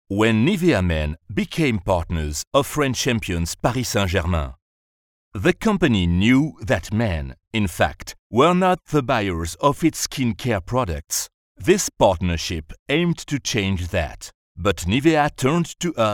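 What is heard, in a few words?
low voice strong corporate; nivea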